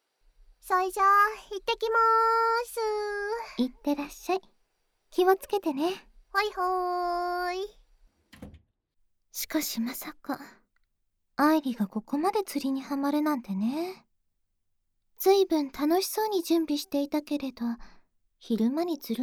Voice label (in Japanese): 添い寝ックス 左右反転, ASMR; 麗花